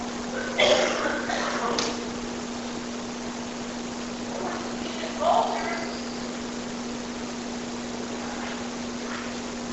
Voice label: Ballroom Unexplained Female Voice (Um get the vultures) Amplified